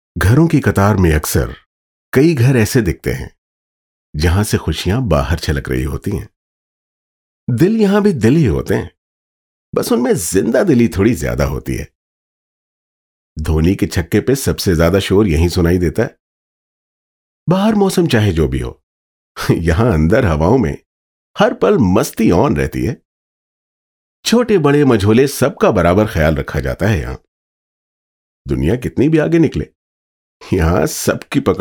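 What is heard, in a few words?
Narrative voice over hindi, male voice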